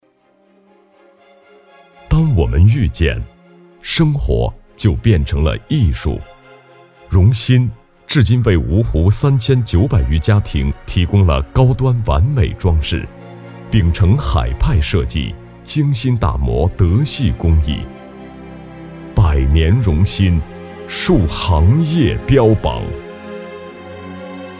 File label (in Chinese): 男 广告